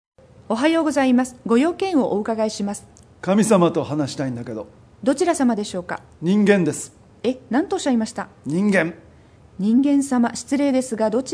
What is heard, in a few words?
Convers Japanese